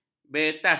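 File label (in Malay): "betas", Malay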